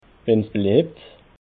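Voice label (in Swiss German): "wenns beliebt", Alsatian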